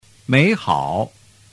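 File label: "meihao", Chinese; doi dau giong; giao trinh 301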